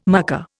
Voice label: "mucker", Indian